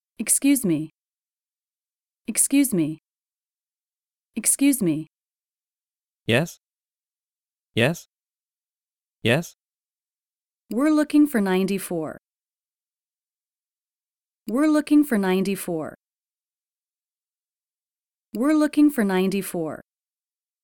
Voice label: Directions Dialog Practice